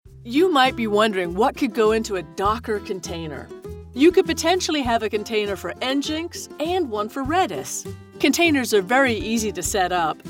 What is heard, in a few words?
Elearning, voice over